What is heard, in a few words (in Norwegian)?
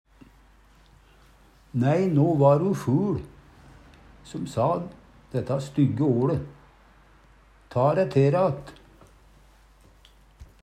ful, Numedalsmål; Nei, no va'ru fuL som sa dætta stygge oLe. Ta' ræ te' re att!; Adjektiv